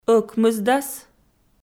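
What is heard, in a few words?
"ӧкмысдас", Komi-Zyrian, female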